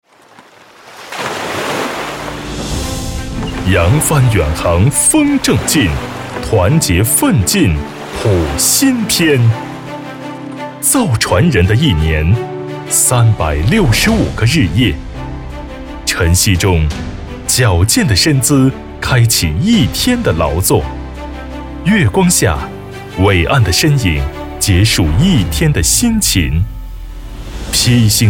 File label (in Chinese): 男 中文 大气类宣传